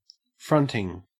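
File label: "fronting", Australian English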